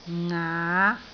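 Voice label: "ngaa5", Cantonese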